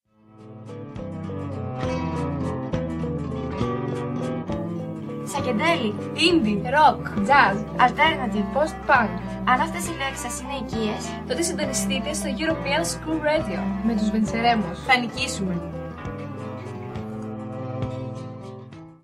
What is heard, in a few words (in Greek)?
spot